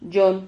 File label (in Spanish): "yon", Silabas